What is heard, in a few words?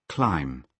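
"climb", British English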